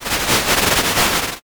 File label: whisper